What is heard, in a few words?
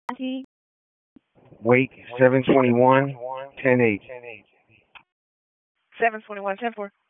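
Sheriff's Office Dispatch; WSO DISP 1; wake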